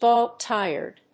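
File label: "dog-tired", English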